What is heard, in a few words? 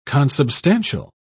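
"consubstantial", British English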